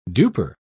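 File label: "duper", British English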